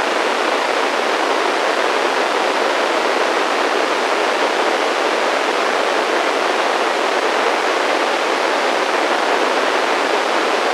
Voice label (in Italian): Annuncio, pmr446